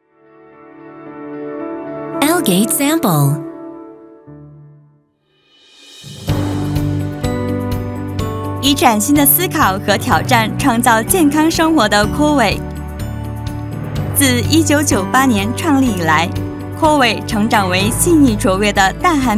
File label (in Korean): 중국 여 기본 톤